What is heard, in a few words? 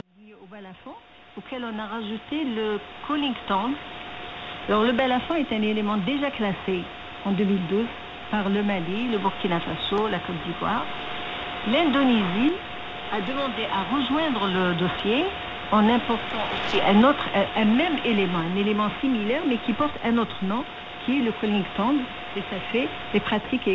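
Amharic AM; 15275,0; Deutsche Welle; Issoudun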